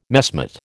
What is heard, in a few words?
"messmate", American